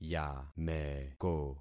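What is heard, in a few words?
"yameko", concatenated syllables; Affixing experiment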